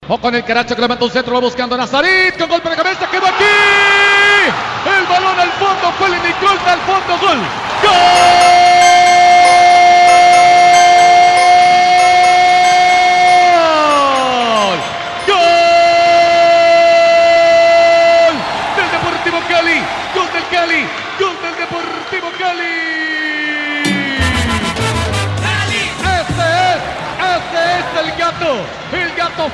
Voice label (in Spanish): gol, narra; deportivo cali; rcn cali